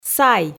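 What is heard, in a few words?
"сай", Komi, female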